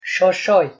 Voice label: "shoshoi", European Romani

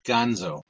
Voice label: "ganzo", Proto-Germanic

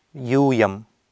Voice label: "yuuyam", Sanskrit

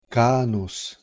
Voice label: "canus", Latin

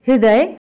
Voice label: "hrday", Hindi

